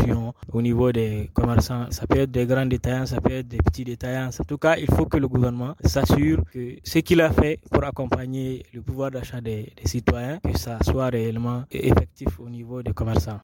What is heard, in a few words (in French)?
VOX POP HAUSSE PRIX DENREES; FR